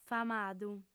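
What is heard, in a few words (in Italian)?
"famadu", lingua sarda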